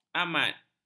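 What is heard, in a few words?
"amat", Malay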